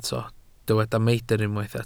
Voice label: "meidr", Welsh